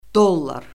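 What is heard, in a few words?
"dollar", Azerbaijani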